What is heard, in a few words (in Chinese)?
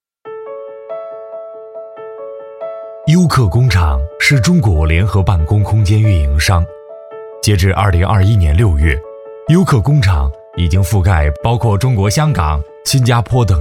配音师男国语, 庄重大气 激情活力, 专题; 优客工场空间运营商